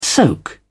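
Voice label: "soak", British English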